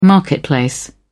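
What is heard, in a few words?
"marketplace", British English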